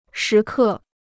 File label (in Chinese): "时刻", Chinese